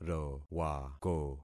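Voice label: "rowako", concatenated syllables; Affixing experiment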